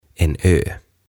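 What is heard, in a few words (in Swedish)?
"en ö", Swedish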